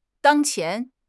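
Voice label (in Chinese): "当前", Chinese; HSK6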